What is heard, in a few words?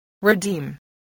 "redeem", spelling voice